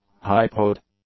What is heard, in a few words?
"hypoid", English; adjectives